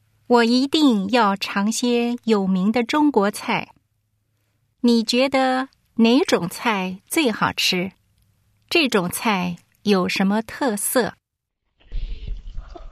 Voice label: chinese conversation; ap chinese language and culture